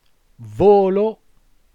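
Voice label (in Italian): "volo" Italian word, voce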